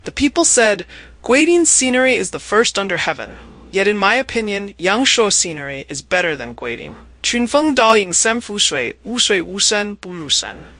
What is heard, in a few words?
Mandarin English; OpenAI